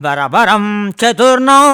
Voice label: MAN VX, Indian Traditions; USB Soundscan vol.45; AKAI

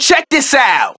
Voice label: Vocals

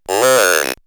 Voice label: Robot Learn, robot vox; X-Static Goldmine

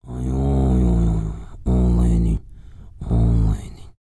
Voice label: TUVAN TALK; Spectrasonics Vocal Planet CD5; World Voices

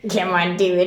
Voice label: "COME ON", FEMALES; Best Service; Hyper Dance

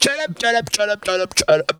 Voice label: "get up get up", Funky Vocals; USB Soundscan vol.18; AKAI

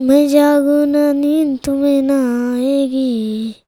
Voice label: CHILD VX, Indian Traditions

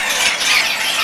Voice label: Intro Chatter American; SNES; Super Mario All-Stars

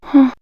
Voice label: Men Women Animal Magic, vox; X-Static Goldmine

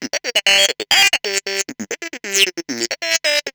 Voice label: Bot Scat (135BPM), sci-fi

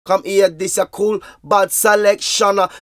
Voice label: Vocals; Jungle Frenzy 1

